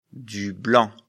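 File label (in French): "blanc", French; afrikalan; lecture fr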